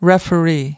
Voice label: "referee", us